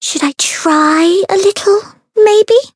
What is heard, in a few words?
variable chant; ewella